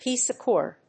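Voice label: "peace accord", English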